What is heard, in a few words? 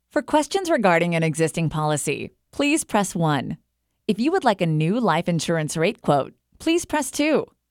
Female